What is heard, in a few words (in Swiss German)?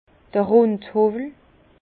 "rundhowwel", Bas Rhin; vin; rabot rond